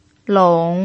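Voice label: "long", Mandarin Chinese pinyin, tone 3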